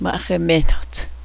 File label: "apples", cheyenne; okla